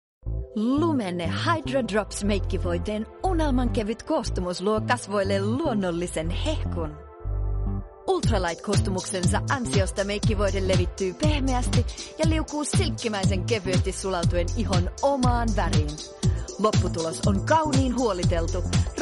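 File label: Finnish, female, commercial; voice over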